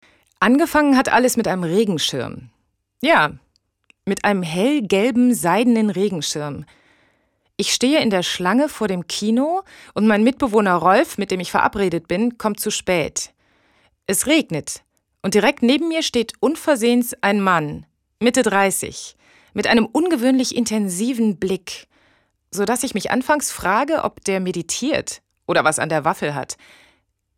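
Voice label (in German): Hörbuch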